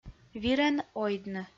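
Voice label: "вирӧн ойдны", Komi, female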